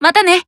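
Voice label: Voice Emotion Greet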